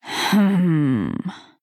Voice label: Female Night Elf Thinking